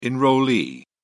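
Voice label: "enrollee", British English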